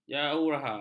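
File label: "jauhar", Malay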